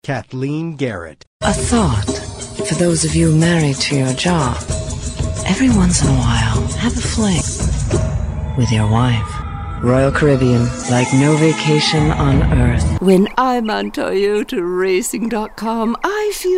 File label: commercial